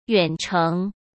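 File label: "远程", Mandarin Chinese; hsk; chinese-flashcards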